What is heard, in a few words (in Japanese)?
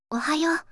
四国めたん（あまあま） おはよう